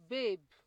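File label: "babe", Nigerian Pidgin, female; pcm-ng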